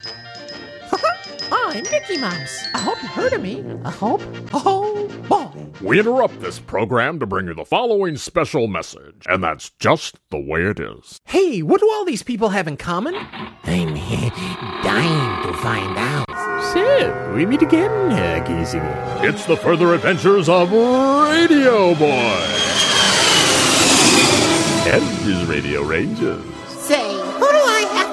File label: Animation